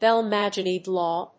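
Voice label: "Bell-Magendie law", English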